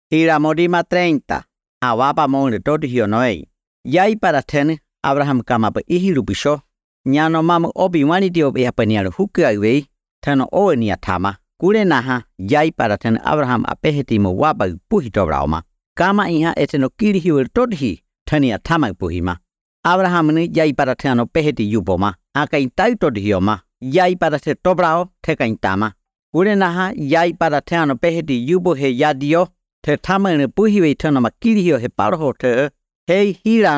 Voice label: audiobook Yanomamo; King of Glory; A Wabamou Lä Dodijiyo Nowei